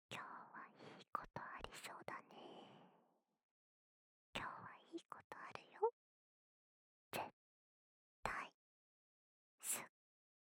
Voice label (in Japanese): 囁き 左耳 今日はいいことある